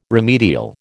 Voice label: "remedial", American

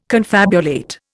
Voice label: "confabulate", Indian

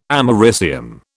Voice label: "americium", British

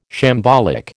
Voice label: "shambolic", American

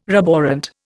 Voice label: "roborant", Indian

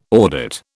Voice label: "audit", British

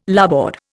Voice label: "larboard", Indian

